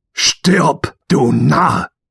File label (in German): Narr